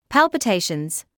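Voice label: "palpitations", British English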